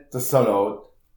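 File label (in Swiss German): "salat", Alsatian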